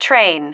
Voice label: "train", female